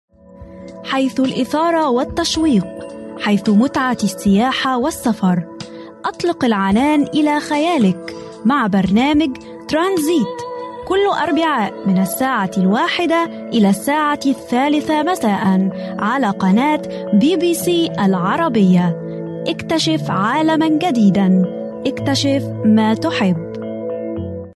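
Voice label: Arabic, female, promo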